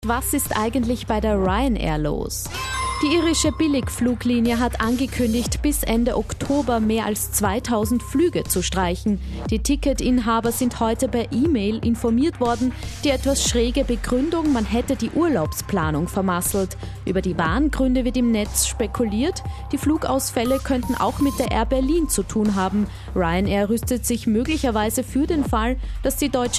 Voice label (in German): Interview; Kronehit; Ryanair-Flugausfaelle